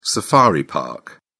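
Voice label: "safari park", English, British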